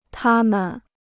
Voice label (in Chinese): "她们", Chinese